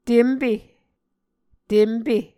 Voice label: "tempe", Muscogee